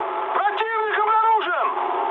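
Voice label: Russian enemy detected mood high, speech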